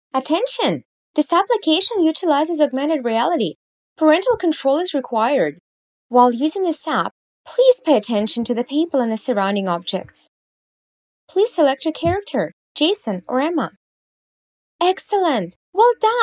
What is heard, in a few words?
girl ENG